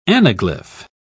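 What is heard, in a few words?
"anaglyph", British English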